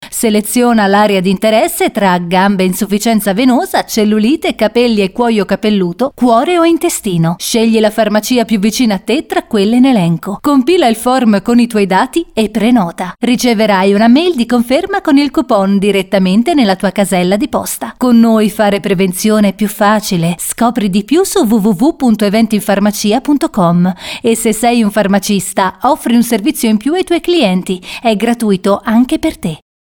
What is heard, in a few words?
Italian